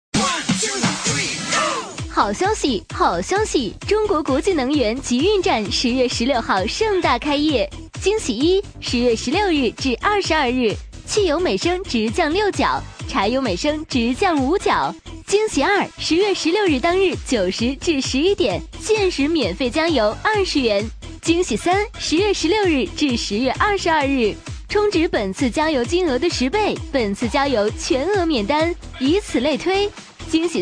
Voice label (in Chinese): 女 促销 促销激情